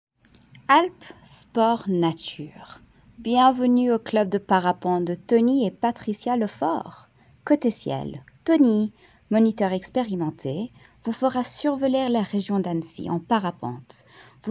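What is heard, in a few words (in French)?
French, female, narration; voice demos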